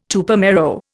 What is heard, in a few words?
"Tupamaro", Indian